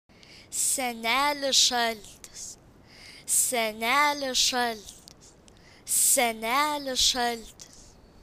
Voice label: "Father Christmas", Lithuanian; Senelis Šaltis